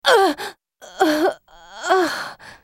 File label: dying, en US; MissFortune